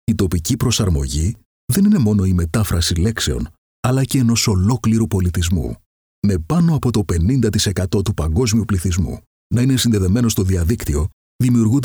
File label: greek male